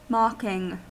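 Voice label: "mocking", US English; pronunciations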